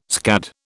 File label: "scad", British